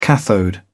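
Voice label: "cathode", British English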